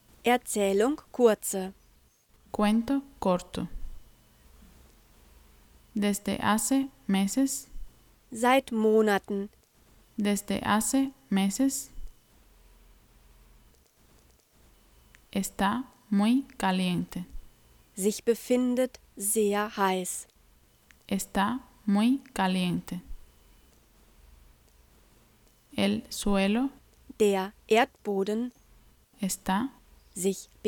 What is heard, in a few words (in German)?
Hörprobe Regen Spanisch langsam mit dt